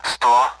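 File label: Speech Sound Russian Common AWACS Numbers; DCS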